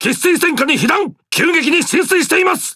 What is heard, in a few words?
Speech Alarms Critical Flooding, JA; World Of Warships